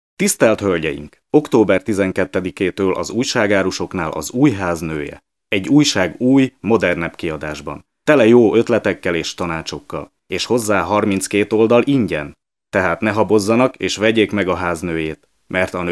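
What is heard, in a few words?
M HU commercial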